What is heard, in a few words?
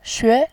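"xue", Mandarin Chinese, tone 2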